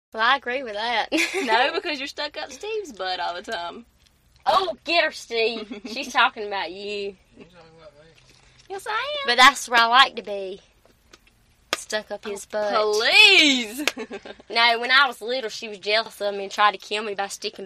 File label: North Carolina WF ages 17 27